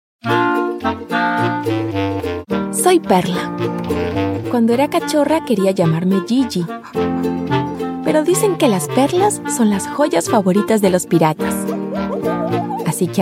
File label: Argentinian Spanish voice, audiobook